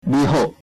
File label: hello taiwanese; 你好; lí-hó; Chinese (Taiwanese)